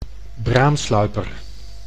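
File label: "braamsluiper", Dutch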